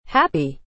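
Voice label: "happy", English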